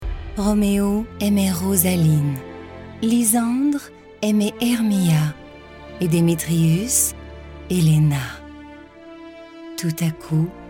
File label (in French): FR